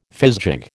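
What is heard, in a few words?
"fizgig", American English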